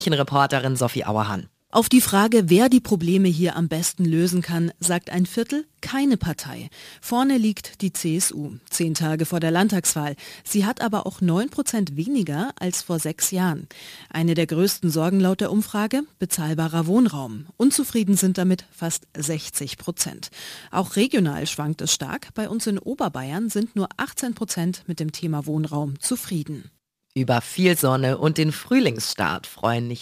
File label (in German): Nachrichten; Die Arabella Nachrichten vom Donnerstag, 26.02.2026 um 12:08 Uhr; News zum Nachhören Podcast